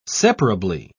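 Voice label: "separably", British English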